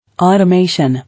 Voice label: "Automation", English